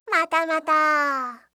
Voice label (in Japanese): "matamata", voice, reaction